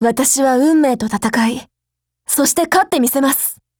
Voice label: WIN JP